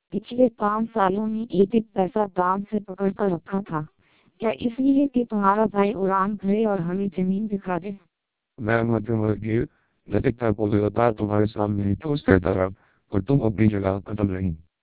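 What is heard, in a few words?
Hindi speech, TWELP 480 bps vocoder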